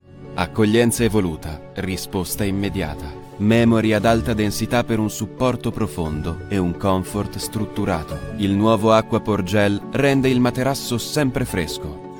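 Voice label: Italian, male, corporate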